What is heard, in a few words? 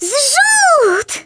"DROP", Polish; Speech; Worms Armageddon